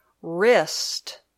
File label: "wrist", English